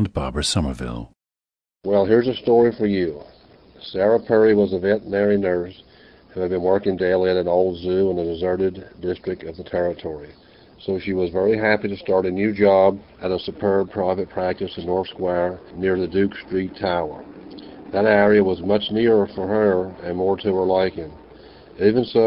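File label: virginia, International Dialects of English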